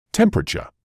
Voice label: "temperature", English

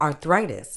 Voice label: "arthritis", Medical English

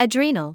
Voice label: "adrenal", medical english

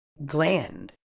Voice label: "gland", medical English

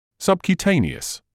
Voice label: "subcutaneous", English

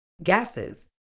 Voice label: "gases", medical English

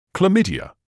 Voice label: "chlamydia", medical english uk

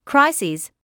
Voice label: "crises", medical english

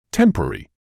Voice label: "temporary", English

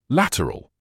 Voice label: "lateral", English

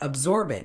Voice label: "absorbent", Medical English

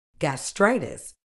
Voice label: "gastritis", medical English

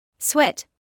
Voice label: "sweat", medical English

